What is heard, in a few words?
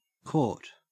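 "court", Australian English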